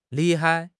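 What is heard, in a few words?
"li4 hai5", Chinese; HSK4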